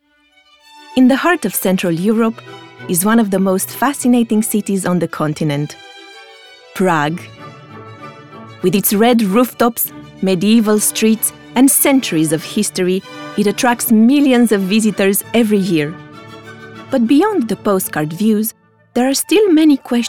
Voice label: NARRATION